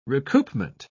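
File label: "recoupment", British English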